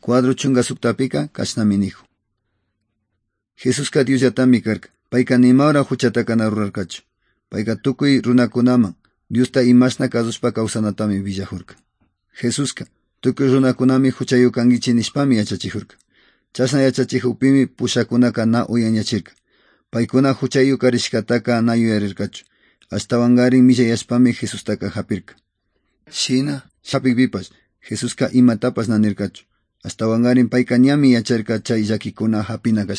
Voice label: good news quichua otovalo; quechua; bible

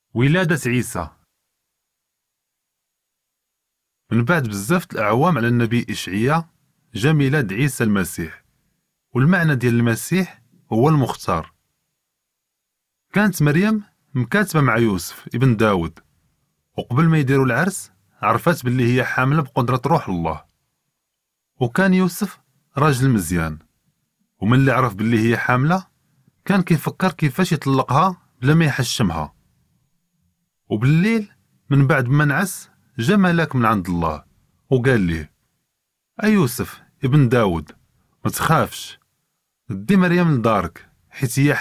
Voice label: birth, moroccan arabic one story

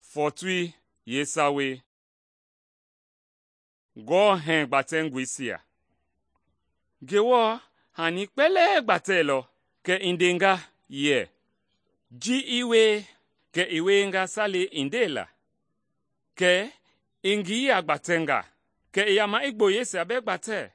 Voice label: mende bsl nt drama; good news mende kpa

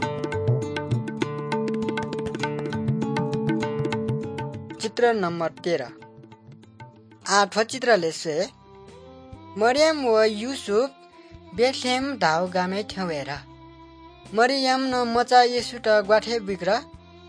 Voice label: good news newari balami

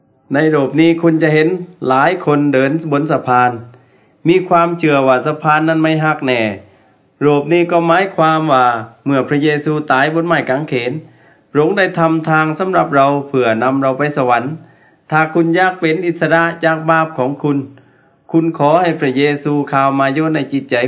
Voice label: words of life creation to christ thai southern

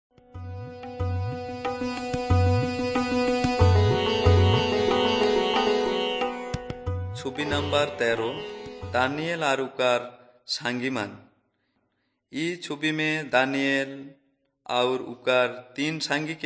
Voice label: urao, drama

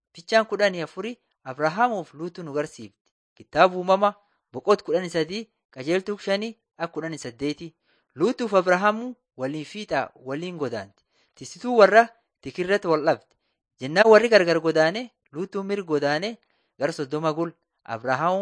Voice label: borana sakuye; laali chaqasi and jiradin 1 waaqan yayabadi beginning with god

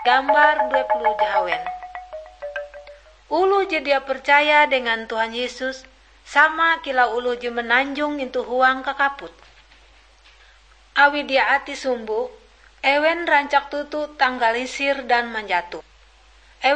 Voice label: kabar bahalap good news ngaju, drama

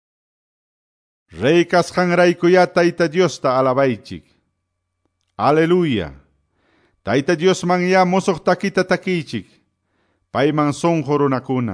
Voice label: salmos, quechua ayacucho

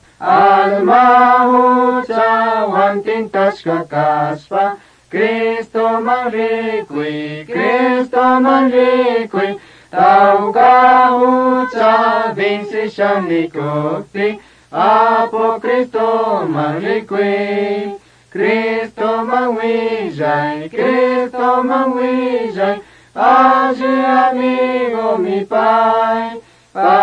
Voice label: words of life quichua chimborazo

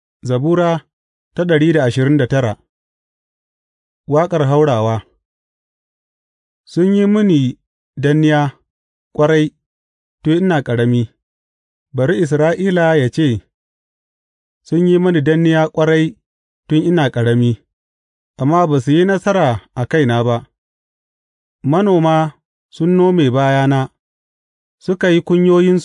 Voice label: hausa bible drama, psalms; hausa bsn nt drama

